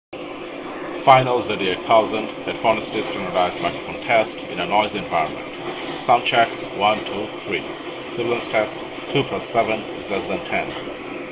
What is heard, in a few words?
Noisy